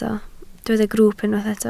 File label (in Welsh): "grwwp" Welsh